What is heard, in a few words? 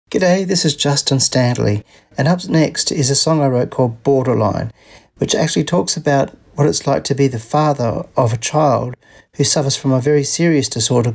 radio intro up next long